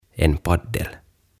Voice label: "En Paddel" "Mela"